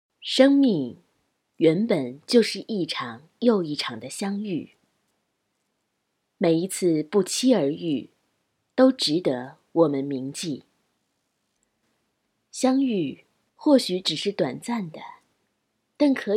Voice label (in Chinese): 片花; 台声片花